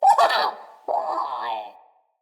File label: Sprout Vocal Grumpy; Friendly; Sproutfolk; Fey Hub Sprout